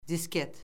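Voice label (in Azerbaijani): "disket", Azerbaijani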